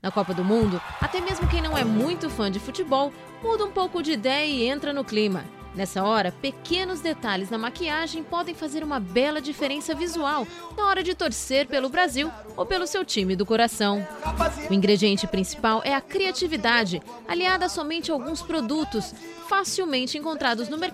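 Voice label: Portuguese General; GoLocalise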